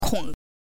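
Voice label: "kong", Mandarin Chinese pinyin, neutral tone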